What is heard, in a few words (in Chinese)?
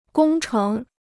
"工程", Chinese